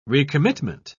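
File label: "recommitment", British English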